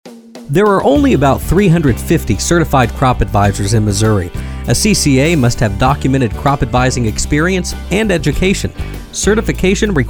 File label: radio spot; certified crop adviser